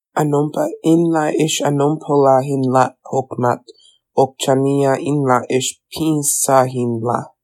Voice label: choctaw